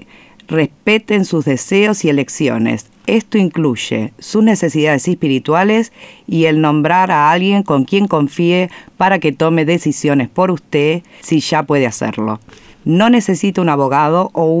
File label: Palliative Care Spanish Plan early